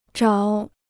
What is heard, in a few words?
"找", Chinese